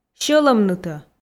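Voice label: "сьӧлӧмнытӧ", Komi, female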